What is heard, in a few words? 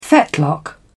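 "fetlock", English, British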